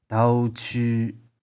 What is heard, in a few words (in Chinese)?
"dou3chiu1", 玉環